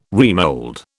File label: "remould", British